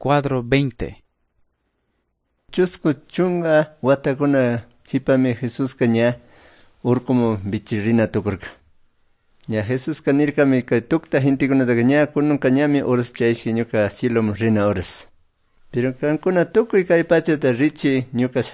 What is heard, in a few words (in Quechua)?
good news quichua cayambe